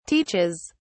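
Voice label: "teaches", British English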